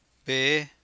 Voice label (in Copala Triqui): "veé", Copala Triqui